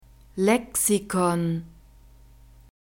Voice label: "lexikon", german